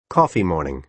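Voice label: "coffee morning", American English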